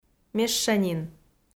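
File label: "мещанин" Komi, female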